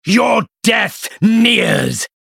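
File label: Hero Critical Hit US English, Мужчина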